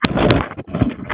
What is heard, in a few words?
conversation